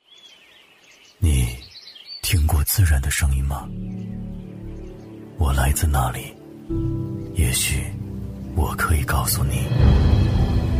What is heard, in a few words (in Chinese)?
男 走心广告 低沉舒缓品质; 男B1-大气沉稳; 《茶叶》